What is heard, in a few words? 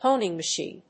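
"Honing machine", English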